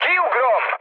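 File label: Hungarian bailout mood low, speech; War Thunder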